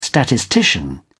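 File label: "statistician", British English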